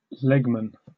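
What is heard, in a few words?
"legman", British English; pronunciations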